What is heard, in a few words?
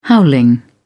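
"howling", British English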